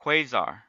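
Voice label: "quasar", US English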